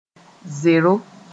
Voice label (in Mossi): "zero", moore